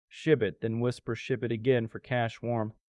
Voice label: Confident and composed, suited to higher-drama launches and cinematic reads.; confidentcinematiccomposed